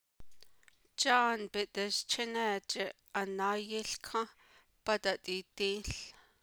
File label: navajo; Proposal, affirmative